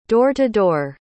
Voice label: "door-to-door", pronounce